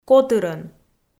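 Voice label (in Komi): "котырӧн", Komi-Zyrian, female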